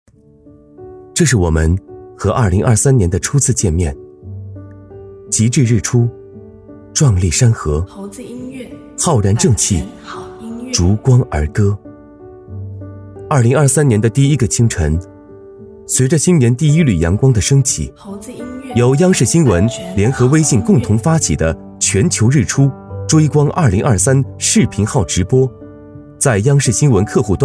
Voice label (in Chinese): 男 旁白温暖; 全球日出·追光2023